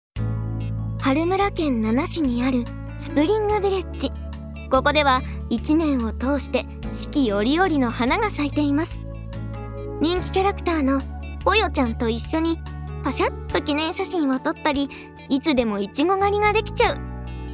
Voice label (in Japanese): ナレーション